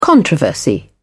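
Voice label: "controversy", British English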